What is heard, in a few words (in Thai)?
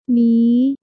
"นี้", Thai